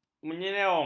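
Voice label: "menyerong", Malay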